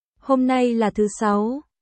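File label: Vietnamese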